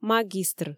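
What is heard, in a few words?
"магистр", Komi, female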